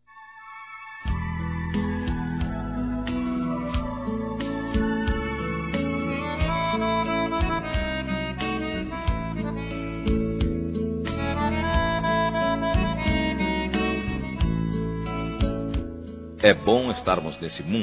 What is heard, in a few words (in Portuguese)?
GENRO M, Telemensagens Aniversários